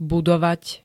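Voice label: "budovať", Slovak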